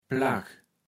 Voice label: "Plagg", kaevels platt

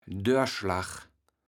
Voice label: "Dörschlach", kaevels platt

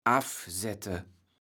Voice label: "affsette", kaevels platt

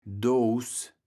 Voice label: "Doos", kaevels platt

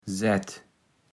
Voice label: "sät", Platt

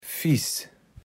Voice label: "Fiss", Platt

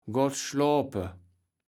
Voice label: "gotschloape", kaevels platt

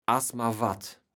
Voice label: "asmarwat", kaevels platt

